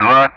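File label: Speech Sound Russian; DCS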